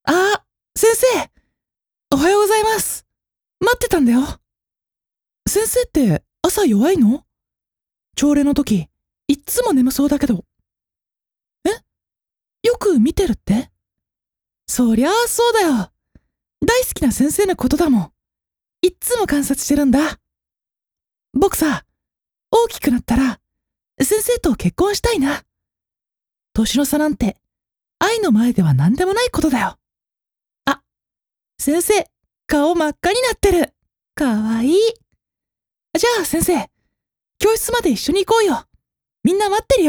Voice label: 「おはよう」ショタ

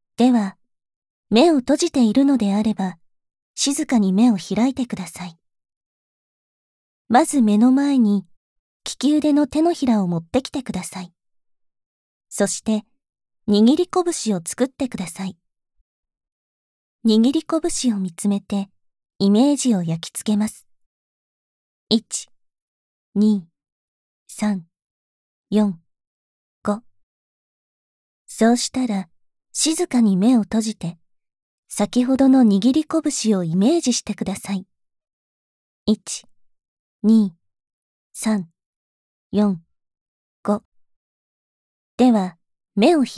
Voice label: イメージトレーニング, ASMR

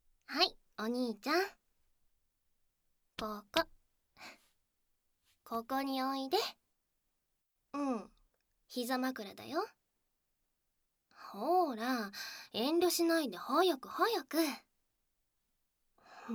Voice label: 膝枕で密着耳かき♪, 効果音なし

